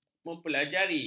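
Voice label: "mempelajari", Malay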